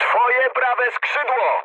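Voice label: right wing mood high, speech Polish; War Thunder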